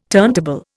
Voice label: "turntable", Indian